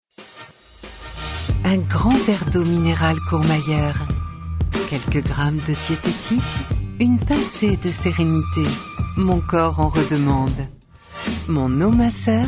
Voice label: french promos